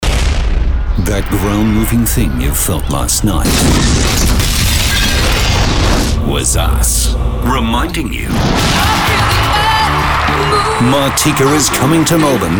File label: Concert Promo; EARTHQUAKE; audio mixing sound design